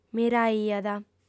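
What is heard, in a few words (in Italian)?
"miraiat", lingua sarda, fem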